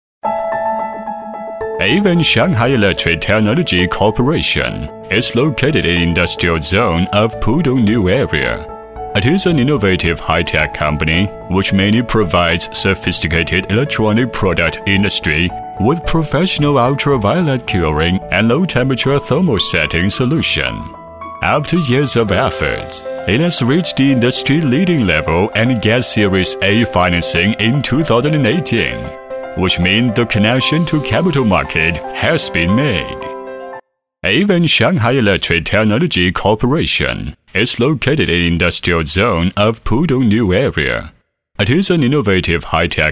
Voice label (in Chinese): 英男, 专题, 科技感力度; 上海昀通科文